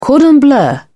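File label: "cordon bleu", British English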